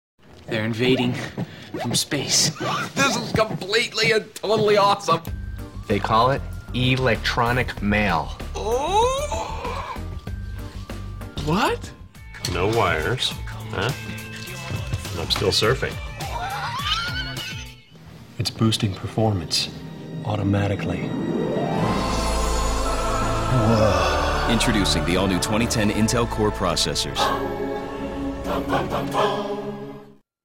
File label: Commercial Ad; Intel; Generations; Oscars